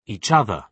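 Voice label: "each other", British English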